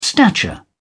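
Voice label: "stature", British English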